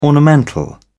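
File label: "ornamental", British English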